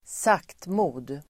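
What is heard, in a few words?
"saktmod", Swedish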